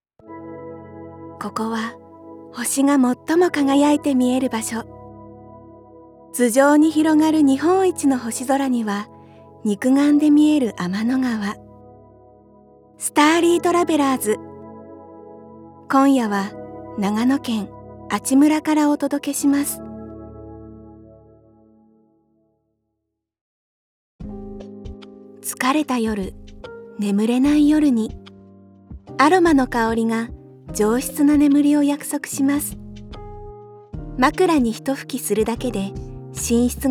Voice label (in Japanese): ナレーション【落ち着いたナレーション】; ◇出身地：長野県